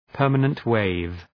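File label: "permanent wave", English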